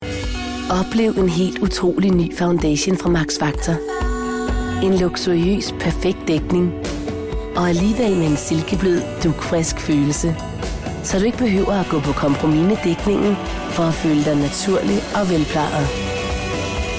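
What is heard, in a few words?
danish female, voice samples commercial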